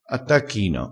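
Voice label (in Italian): "attacchino", vocabolario dialettale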